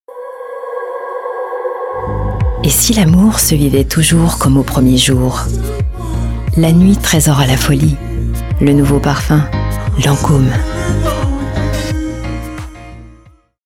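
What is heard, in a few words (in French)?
PUB, Voix off; Lancôme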